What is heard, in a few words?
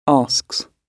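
"asks" British English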